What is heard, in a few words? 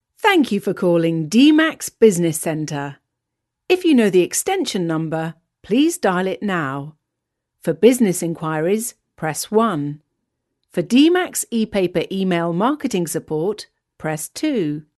telephone